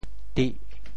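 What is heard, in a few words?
"tih4", Teochew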